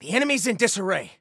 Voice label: Enemy Disarray, Soldier Male